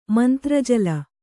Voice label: "ಮಂತ್ರಜಲ", Kannada